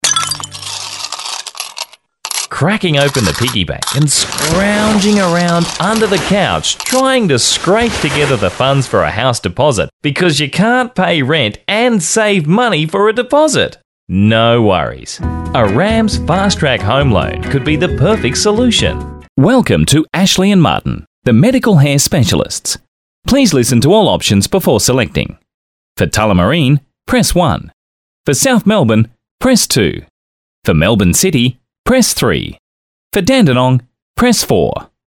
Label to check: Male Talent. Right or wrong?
Right